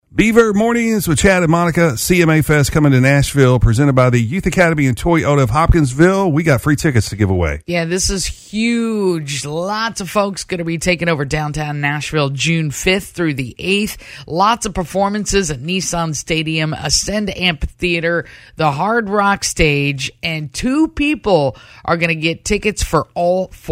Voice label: VOICE TRACK